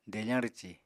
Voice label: "defañ rwci", Apatani